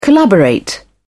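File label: "collaborate", British English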